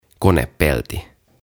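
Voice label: "Konepelti" Finnish; Bonnet; OTAVA KONSERNI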